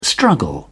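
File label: "struggle", British English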